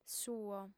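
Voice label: "suo", female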